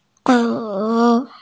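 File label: "gou3", Mandarin Chinese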